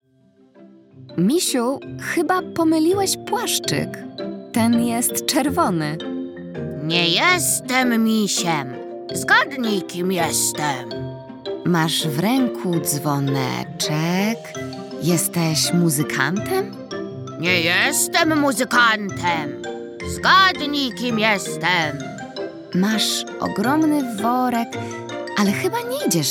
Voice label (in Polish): narracja; czasopismo mis; zima; rozmowa